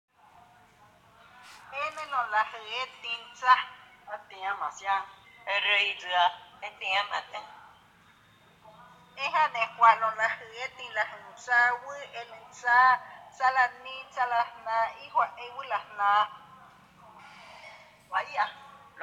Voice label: Lengua Chinanteco; Derechos Humanos y Defensores de DH; FI Uxpanapa

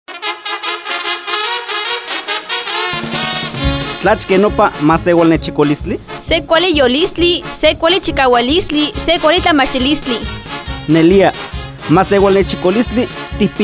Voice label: Lengua Náhuatl dela Huasteca Veracruzana; FI Chicontepec; Derechos Humanos y Defensores de DH

